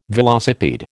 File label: "velocipede", American